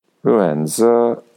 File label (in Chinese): "run4 ze2", Chinese; rùnzé